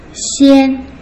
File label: "xian1", Putonghua